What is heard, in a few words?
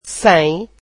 portuguese one hundred